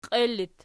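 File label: "qiel" locative, Archi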